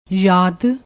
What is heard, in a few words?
"yad", Punjabi